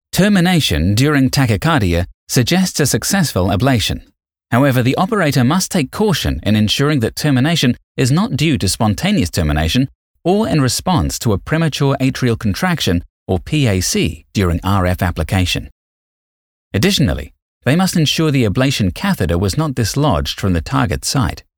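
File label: New Zealand Medical Technical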